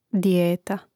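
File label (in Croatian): "dijeta", Hrvatski; mreznik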